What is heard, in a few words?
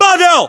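"pavel", battlechatter, Russian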